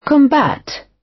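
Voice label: "combat", British; Crime and punishment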